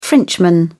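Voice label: "frenchman", British English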